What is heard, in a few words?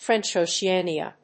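"French Oceania", English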